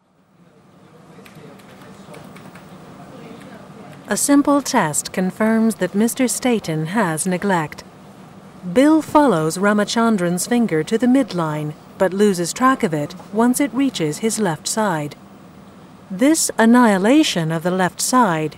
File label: medical narration, voiceover